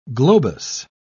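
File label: "globous", English, British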